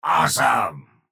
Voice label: vo chatter positive; strogg infiltrator; frag

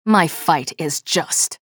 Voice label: chatter neutral; nyx

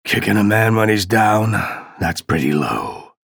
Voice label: chatter negative; ranger; respawn

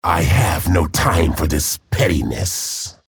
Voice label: chatter negative; respawn

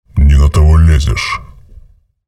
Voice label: chatter neutral; visor

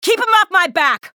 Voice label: chatter negative; athena; respawn

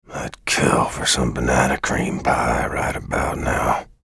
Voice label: chatter neutral; bj blazkowicz

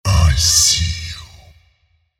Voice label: ability activate en; visor

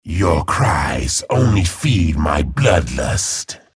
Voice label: chatter positive; frag

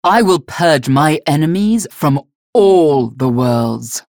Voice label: menu chatter; galena; unholy paladin; frag

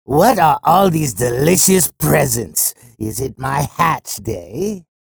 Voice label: chatter neutral; sorlag

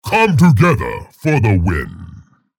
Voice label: vo chatter negative; scalebearer; respawn

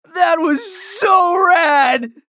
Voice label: chatter positive; anarki; frag

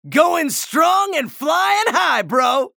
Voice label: chatter neutral; anarki

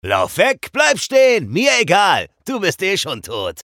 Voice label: chatter neutral; eisen

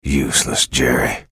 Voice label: chatter positive; bjblazkowicz; frag

